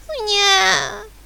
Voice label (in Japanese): "ふにゃー", ミス